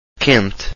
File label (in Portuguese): "quente", Portuguese